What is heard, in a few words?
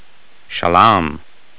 aramaic; Golden Record